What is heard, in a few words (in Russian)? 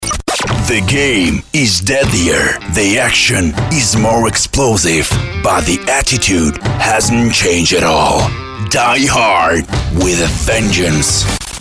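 английский, Иностранные дикторы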